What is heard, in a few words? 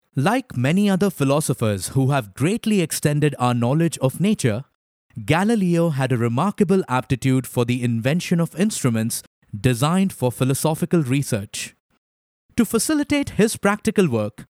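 Hindi, male, English, corporate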